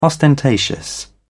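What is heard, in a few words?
"ostentatious", British English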